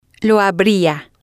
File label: "lo Abria", spanish